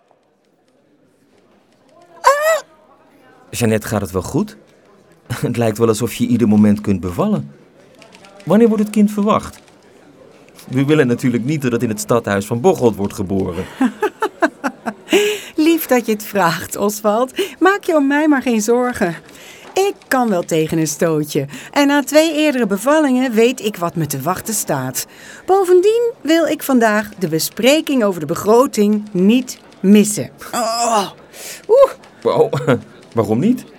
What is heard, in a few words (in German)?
niederlaendisch; Café Eilers; Bocholter Bildtafeln; Stadtarchiv